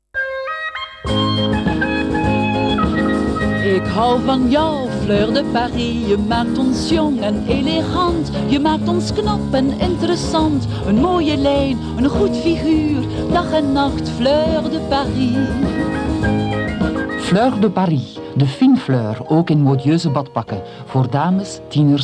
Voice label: commercial